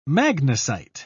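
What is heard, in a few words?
"magnesite", British English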